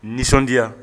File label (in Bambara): nisɔndiya, Bambara